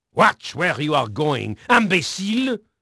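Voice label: French male, voice; Pirates Of The Caribbean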